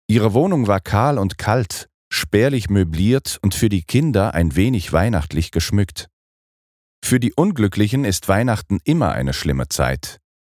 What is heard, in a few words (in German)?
hoerbuch